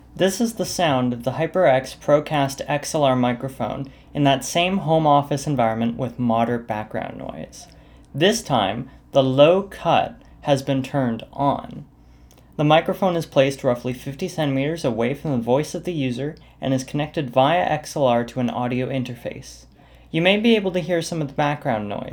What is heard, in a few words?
low cut sample; HyperX ProCast